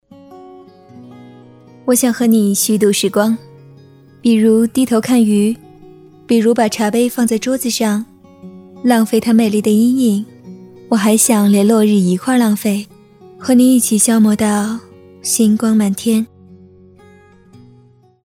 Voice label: 女 旁白 温柔

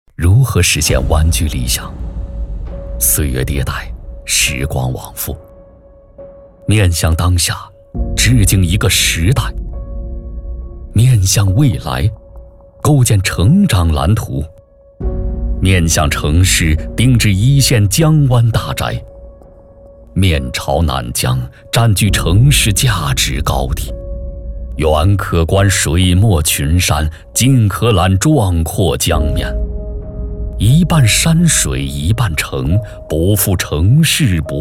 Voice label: 男 房产类 大气走心品质 气势; 碧桂园天玺湾

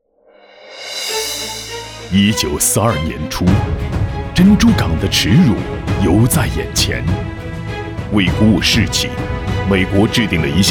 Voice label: 男 专题 历史; 珍珠港

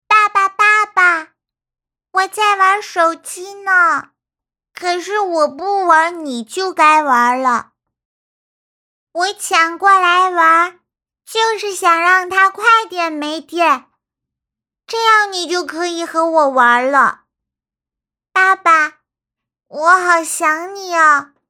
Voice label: 偏素女童

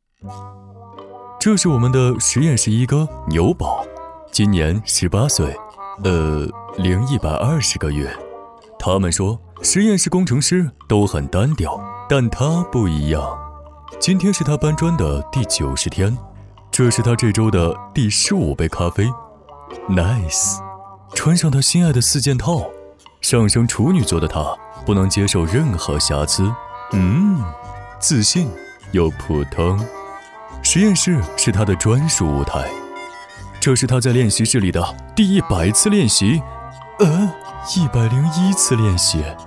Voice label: 男 轻松幽默; 凡尔赛; 赢创校招

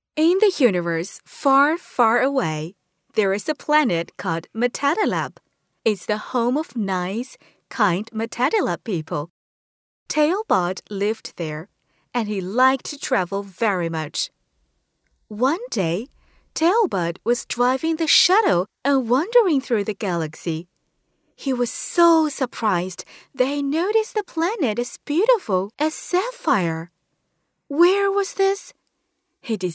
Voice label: 女 英文绘本 旁白讲故事

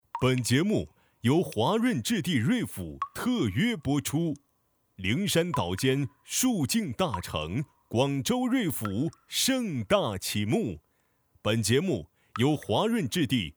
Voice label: 男 广告 特约

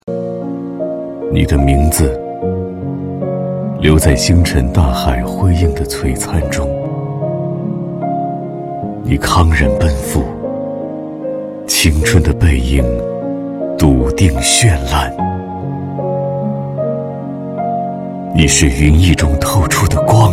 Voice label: 男,独白,缅怀军人走心

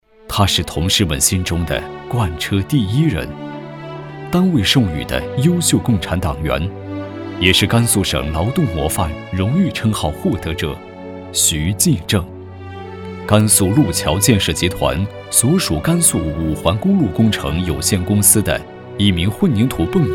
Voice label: 男 人物介绍; 劳模徐纪正

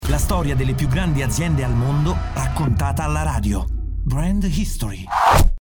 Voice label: 意大利语男 温柔风格

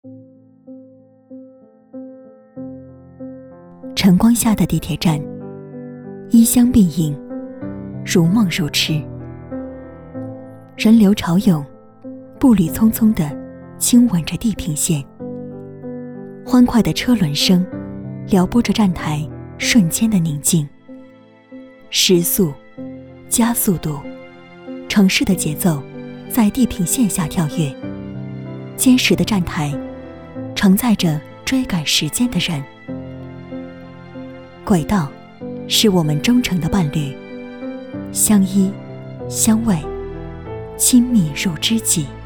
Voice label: 女 宣传片类 地铁十五号线; 北京地铁十五号线

